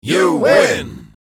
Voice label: Crowd shouts you win; success